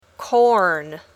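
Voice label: "corn", English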